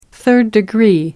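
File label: "third degree", British English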